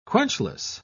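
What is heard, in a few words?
"quenchless", British English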